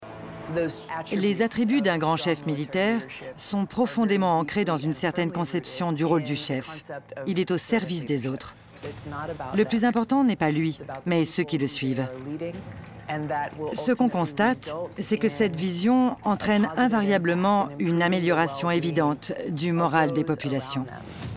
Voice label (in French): voix off les barbares